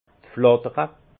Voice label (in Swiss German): "flattere", alsace; vin; voltigeurs